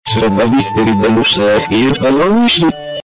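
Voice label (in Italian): "strada", Italian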